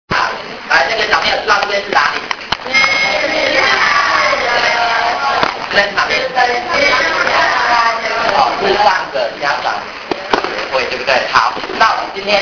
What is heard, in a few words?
book talking; 老師在智障協會的引言; 感動電池小天使關懷之旅錄音內容